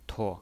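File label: "tuo", pinyin voice, neutral tone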